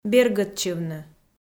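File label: "бергӧдчывны", Komi-Zyrian, female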